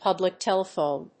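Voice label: "public telephone", English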